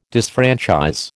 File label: "disfranchise", American